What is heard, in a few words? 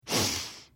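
Idle, Khajiit female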